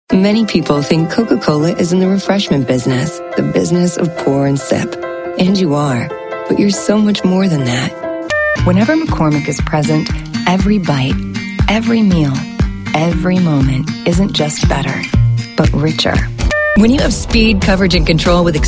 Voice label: english american voice over female commercial